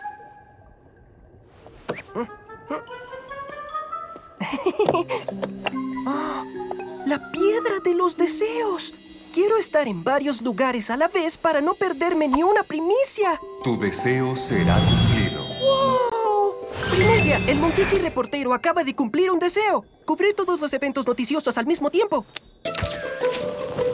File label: spanish latin american animation